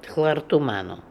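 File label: "Khlartoomano", pronunciations; Fourth Way; Beelzebub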